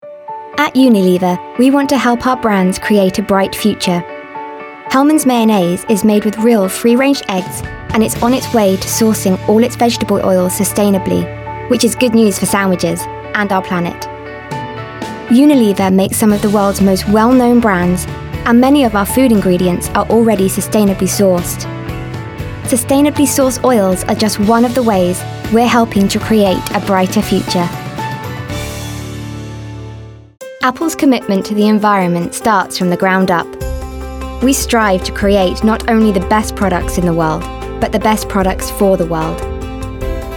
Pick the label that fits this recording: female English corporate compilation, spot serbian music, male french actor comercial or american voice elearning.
female English corporate compilation